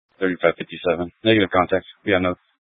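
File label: Police Dispatch, scanner audio recording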